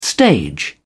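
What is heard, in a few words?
"stage", British English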